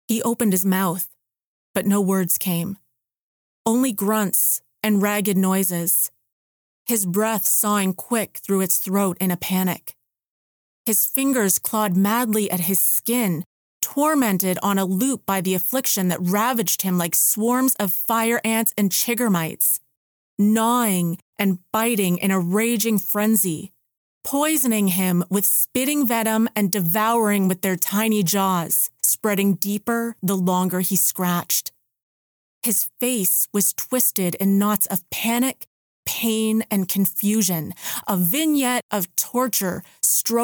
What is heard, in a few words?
Horror Third Person